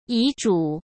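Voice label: "遗嘱", Mandarin Chinese; chinese flashcards; hsk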